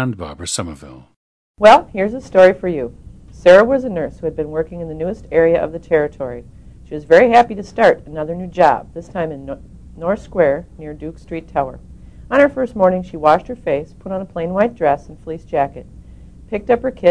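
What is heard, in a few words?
wisconsin, dialects of English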